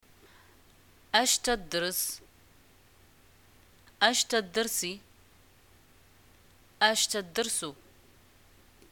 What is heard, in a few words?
what do u study, arabic, ma